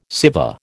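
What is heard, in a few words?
"Siva", American English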